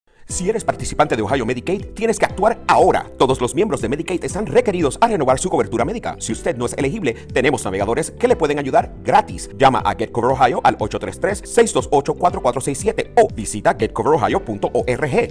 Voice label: Spanish Radio; Get Covered